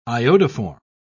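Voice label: "iodoform", English, British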